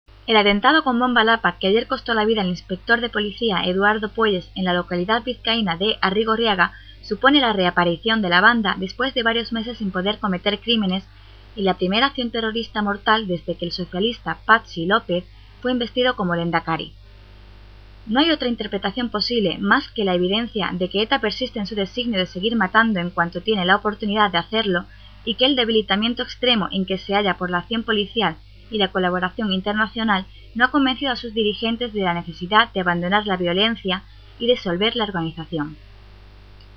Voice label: news

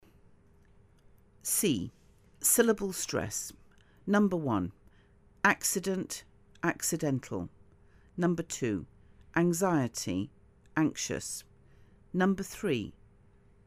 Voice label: edu

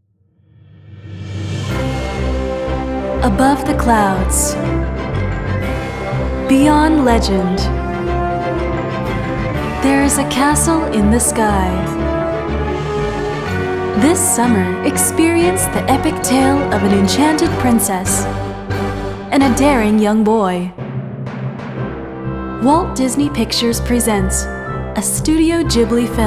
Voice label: trailer

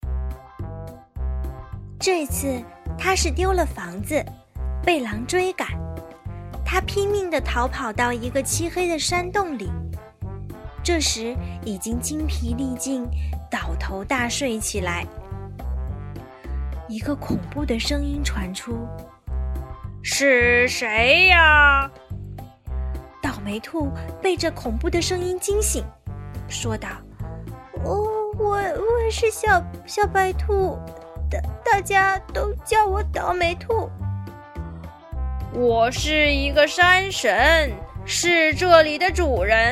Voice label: story

